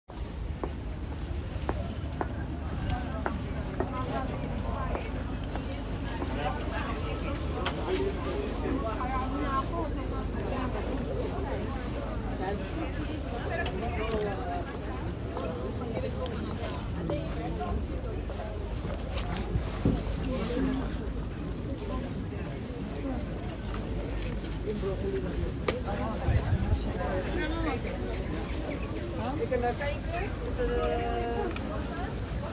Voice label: People talking market + screaming salesperson, Rotterdam Field Recordings